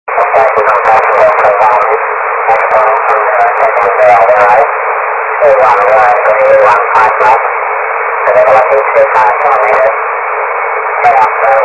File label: Oakland Volmet